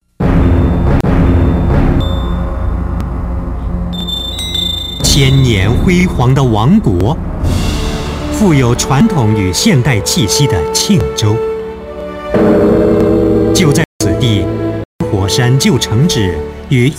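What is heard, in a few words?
chinese mandarin male commercial; voice demos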